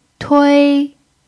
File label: "tui1", pinyin